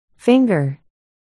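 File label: "finger", spoken word, articulation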